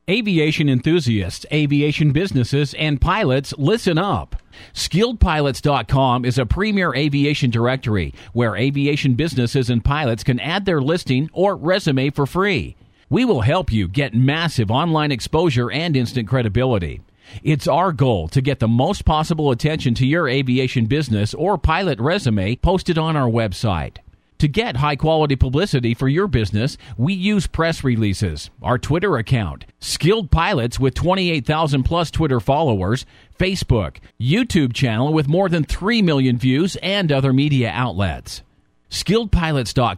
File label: radio commercial